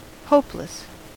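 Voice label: "hopeless", US English; pronunciations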